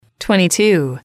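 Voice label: twenty two, English, female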